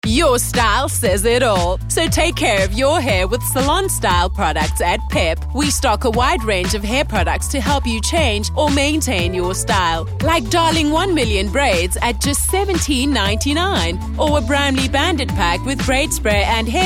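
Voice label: english South African female commercial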